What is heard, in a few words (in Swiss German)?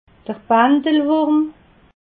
"bandelwurm", alsace